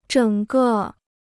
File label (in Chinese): "整个", Chinese